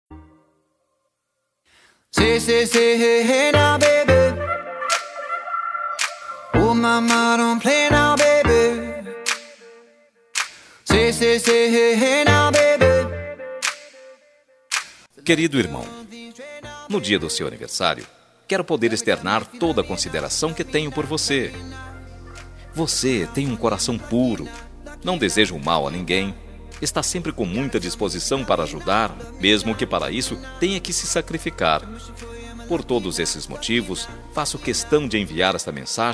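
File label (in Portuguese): aniversario irmao masculino, telemensagem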